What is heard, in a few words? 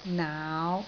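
"naau5", Cantonese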